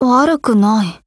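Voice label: Appreciate, Japanese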